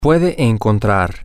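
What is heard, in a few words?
you can find, spanish, male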